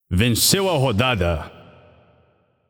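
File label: Round Won, Brazilian Portuguese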